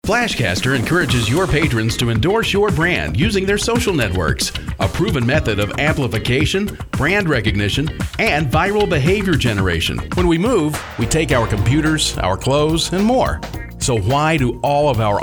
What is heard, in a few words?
Explainer